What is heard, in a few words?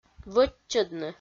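"вӧччӧдны", Komi, female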